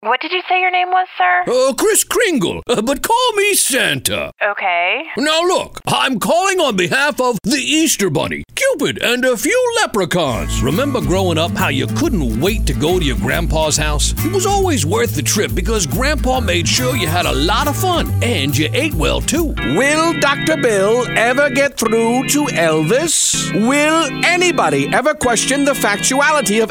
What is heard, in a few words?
Character